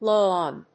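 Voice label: "low on", English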